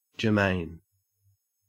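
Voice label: "germane", Australian English; pronunciations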